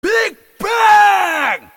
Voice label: priest voice; DnF Big Bang